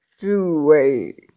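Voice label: "ci4wei5", Mandarin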